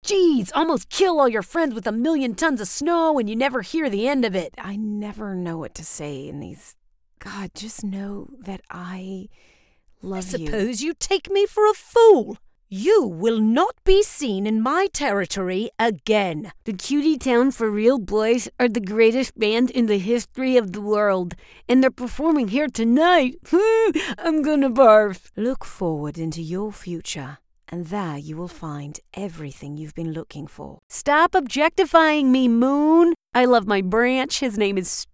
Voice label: animation